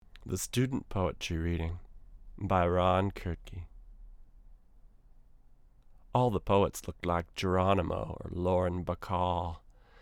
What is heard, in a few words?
VO English; Realios A9031